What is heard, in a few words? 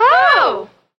"hoo"